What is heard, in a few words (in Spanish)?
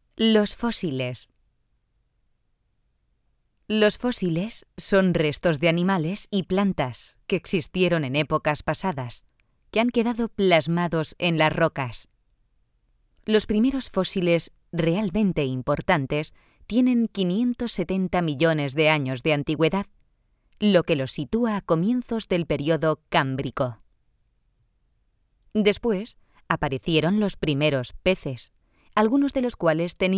audioguia; audioguia museo de la mineria; esp